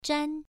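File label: "zhan1", Chinese pronunciation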